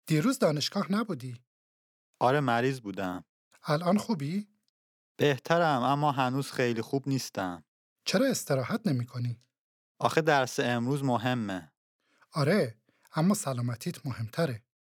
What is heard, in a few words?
Dialogue lesson Farsi